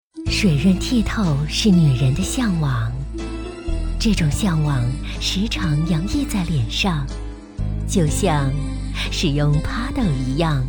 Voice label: shuijingpian, MANDARIN FEMALE